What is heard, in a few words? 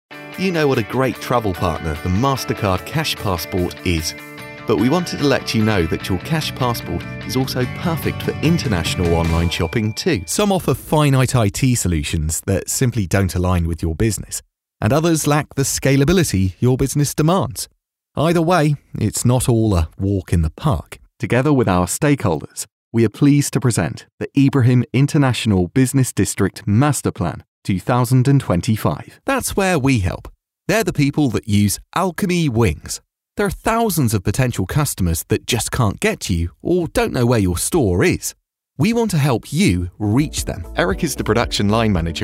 voice over english; demo voix off; poulayot studios